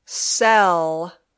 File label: "sell", English